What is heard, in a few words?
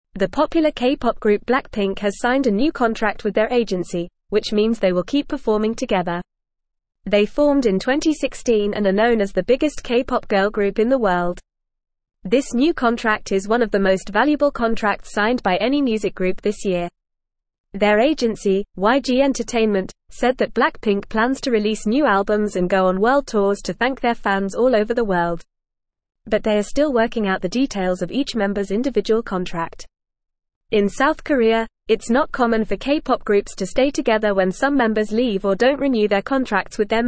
Upper Intermediate FAST Reading, English; English Newsroom